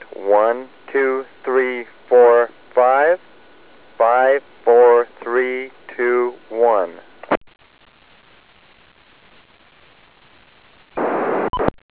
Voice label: FM voice VS Digital Voice